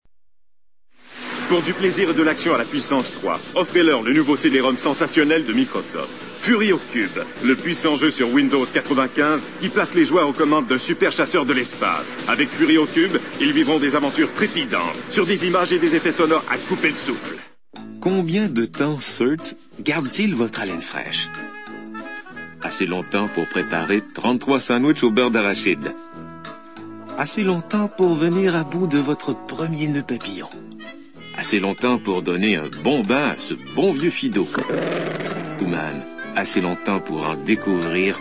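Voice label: French male voice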